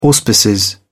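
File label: "auspices", British English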